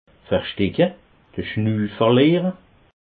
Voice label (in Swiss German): "versticke", alsace